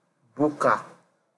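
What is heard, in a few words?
"buka", Nigerian Pidgin, male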